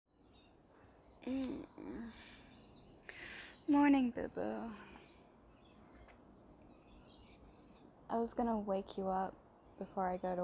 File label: Rambling, Improv, Aussie Outdoor Ambiance; F4A; Friday Morning Love Letter; GFE; Different Work Schedules; Drinking Tea